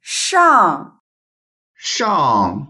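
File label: "shang4", Mandarin Chinese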